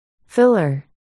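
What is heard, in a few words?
"filler", spoken word, articulation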